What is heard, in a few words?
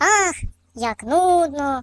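"Boring", British; Default uk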